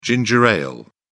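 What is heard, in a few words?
"ginger ale", British English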